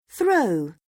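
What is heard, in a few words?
"throw", English; Oxford Word Skills Intermediate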